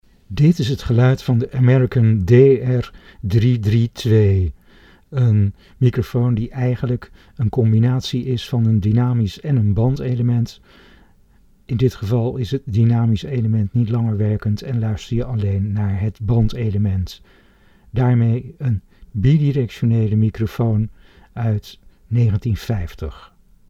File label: American DR332 sound NL